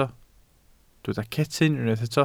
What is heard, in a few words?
"cetyn", Welsh